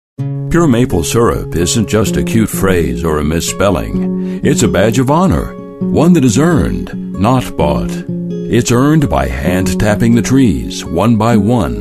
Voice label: english american voice over male commercial

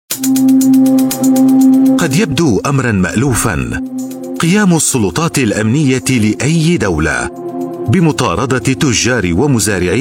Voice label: arabic voice over male narrator

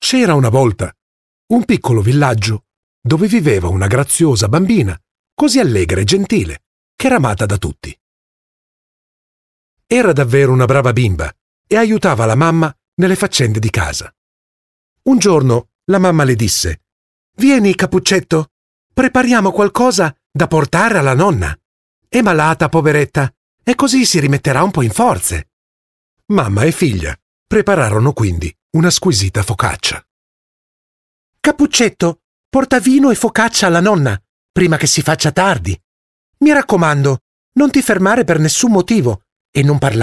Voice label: italian voice over male audiobook

